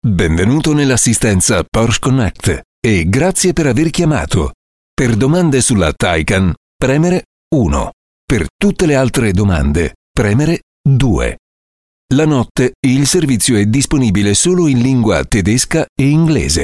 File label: italian voice over male ivr